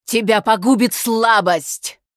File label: Critical Hit, ru RU